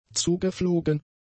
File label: "zugeflogen", German; adjectives